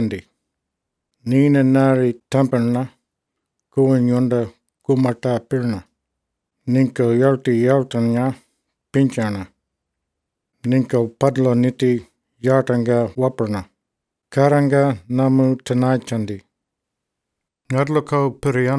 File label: The Lords Prayer in Kaurna; reconciliation sunday 2012; covenanting